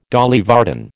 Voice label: "Dolly Varden", American